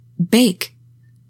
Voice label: "BAKE", english; 3000 words